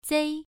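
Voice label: "zei", Mandarin Chinese, first tone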